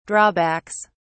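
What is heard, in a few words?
"drawbacks", British English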